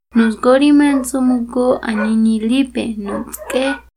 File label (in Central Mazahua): nuzgo ri menzumu̷go a jñiñi lipe, nutsk'e, Mazahua; INALI; AprendeMazahua